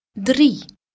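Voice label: "drie" Dutch female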